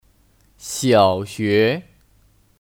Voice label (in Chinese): "小学", Xiǎoxué; (Kata benda): Sekolah dasar